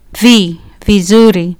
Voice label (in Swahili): "vizuri", Swahili